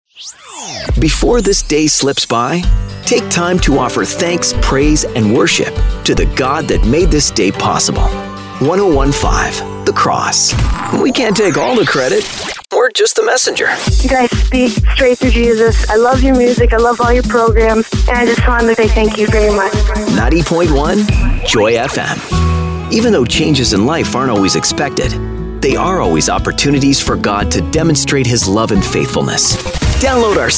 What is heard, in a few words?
radio imaging male christian